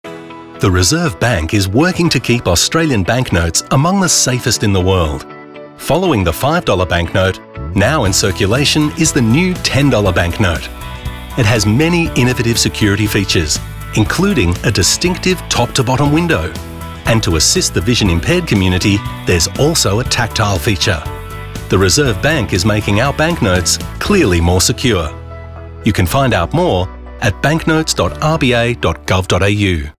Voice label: radio ad